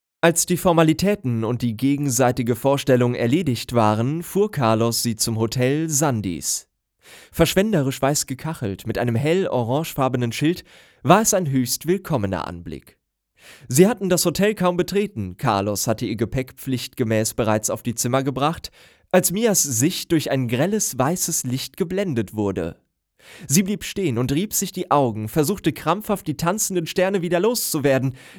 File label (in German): hörbuch thriller; der code